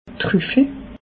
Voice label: "truffel", alsace

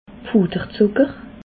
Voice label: "puder zucker", alsace

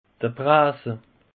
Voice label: "brase", alsace; peche

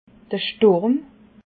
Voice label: "sturm", alsace

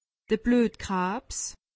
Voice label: "bluetkrabs", alsace; medecine

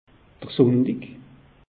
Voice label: "sunntig", alsace; meteo

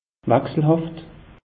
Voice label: "wachselhaft", alsace; meteo

